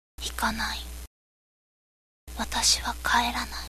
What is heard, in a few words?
girl